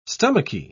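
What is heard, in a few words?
"stomachy", English, British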